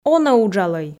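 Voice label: "онӧ уджалӧй", Komi, female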